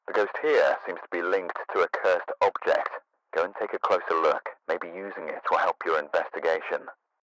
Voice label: Cursed Voiceover; Phasmophobia